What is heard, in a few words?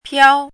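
"piao", pinyin, first tone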